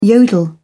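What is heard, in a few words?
"yodel", British English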